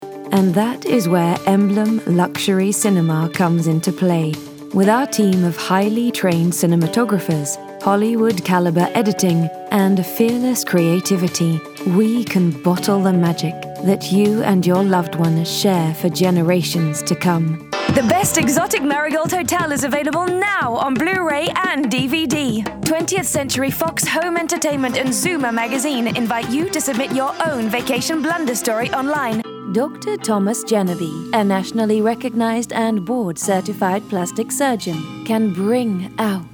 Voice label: COMMERCIAL